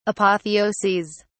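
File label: "apotheoses", British English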